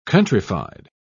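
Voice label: "countryfied", British English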